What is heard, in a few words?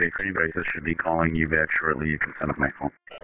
Police Sheriff Dispatch